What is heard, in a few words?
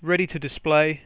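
ready to display, English, Voice Sounds; Samsung SGH-i310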